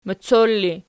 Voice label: "moccor", Archi